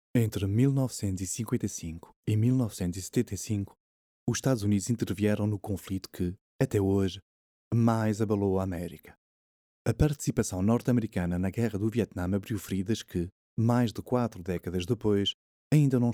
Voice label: Portuguese Generic VO

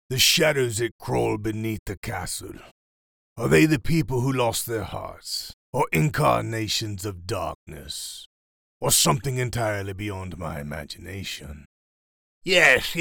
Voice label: Characters Voices; Voice Over for Animation and Cartoon Characters

